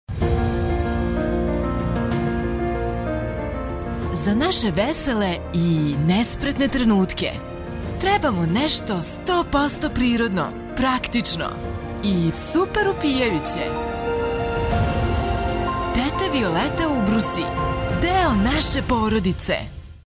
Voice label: serbian tv shows

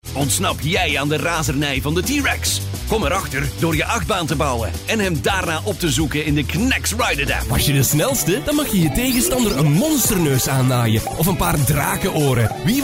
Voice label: flemish others

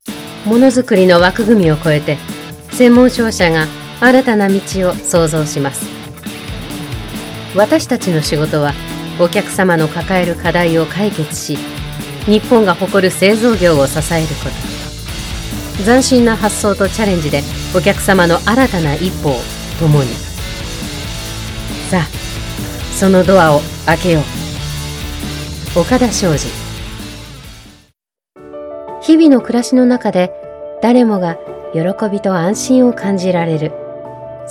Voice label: japanese corporate videos

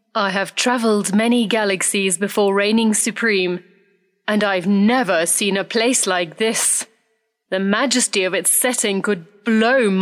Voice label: english british video games

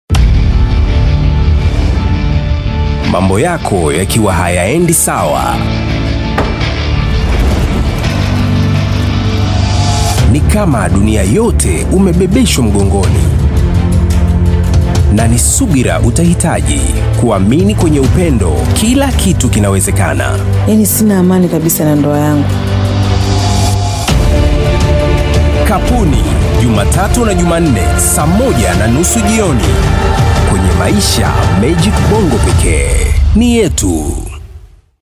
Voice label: swahili tv shows

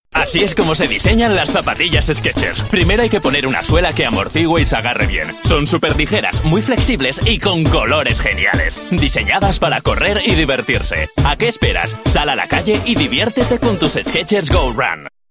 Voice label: spanish tv shows

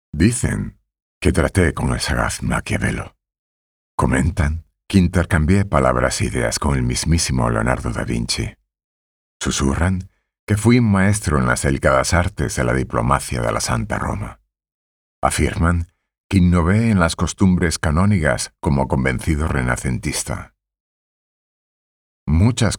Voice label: spanish documentaries

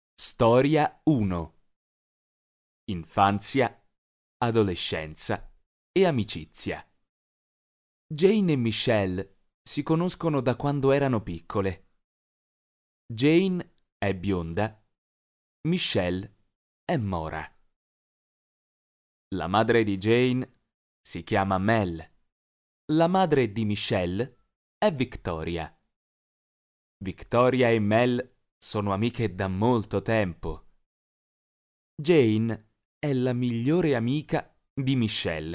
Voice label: italian narration

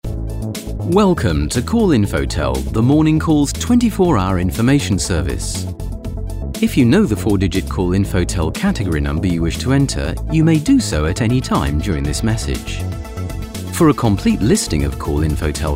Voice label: english british ivr, british voice actors; Source Connect Studio

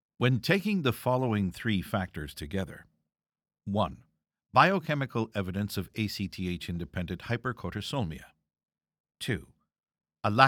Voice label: english canadian medical narration

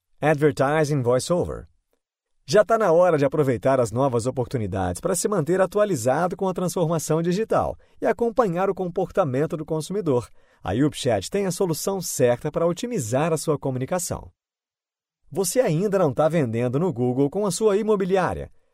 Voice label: portuguese brazilian commercial

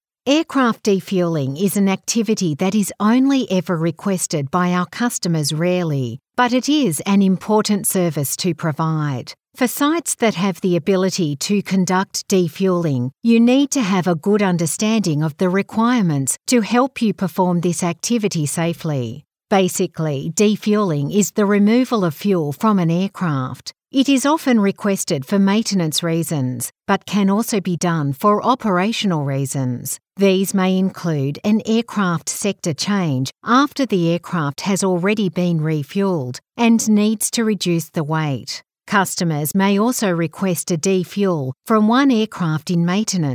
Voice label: english australian e-learning

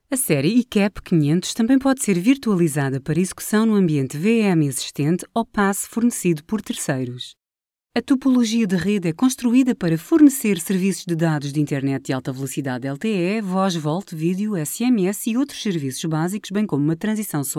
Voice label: portuguese corporate videos

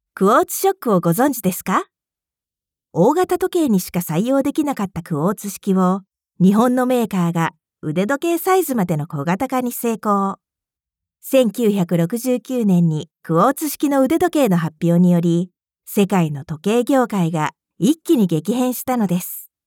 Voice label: Japanese commercial

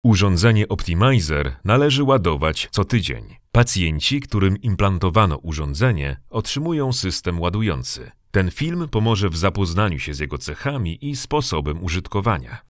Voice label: polish medical narration

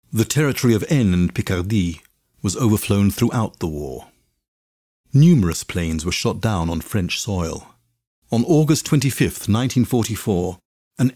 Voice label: english british corporate

